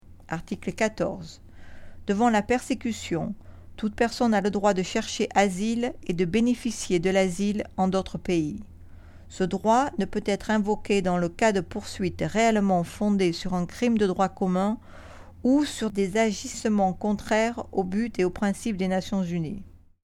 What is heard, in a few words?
French